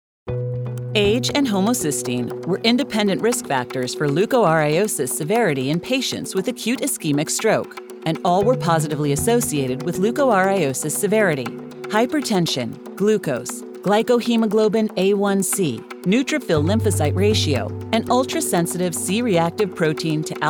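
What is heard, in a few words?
MEDICAL, American Female Voice Actor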